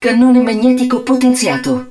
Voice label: hud rail gun augmented, Italian; XBOX; BattleEngineAquila